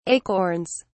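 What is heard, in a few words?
"acorns", British English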